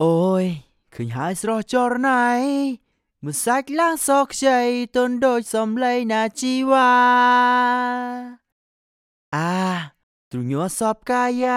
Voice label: male vocal, khmer voice